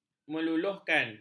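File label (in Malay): "meluluhkan", Malay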